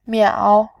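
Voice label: "miao", Mandarin, tone 3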